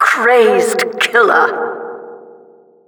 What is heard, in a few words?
Announcer killstreak